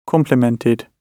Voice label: "complemented", gb